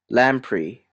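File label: "lamprey", Canadian English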